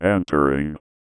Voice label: VOICEFX ELCTR 140; Techno2